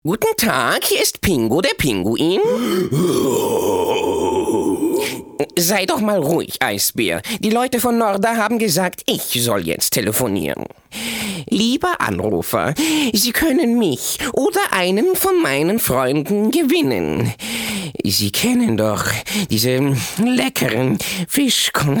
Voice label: Trickstimme

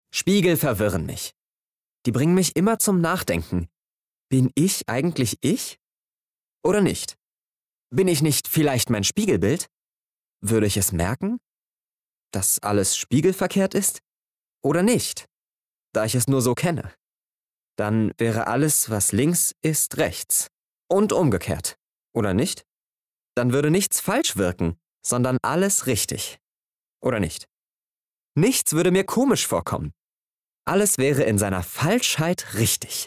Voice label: Innerer Monolog; LOFT VOICES

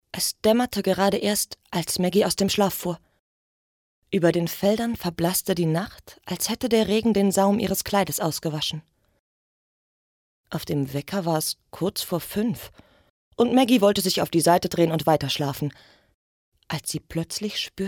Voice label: Hörbuch; Tintenherz; Cornelia Funke; LOFT VOICES

